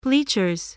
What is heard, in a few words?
"bleachers", spelling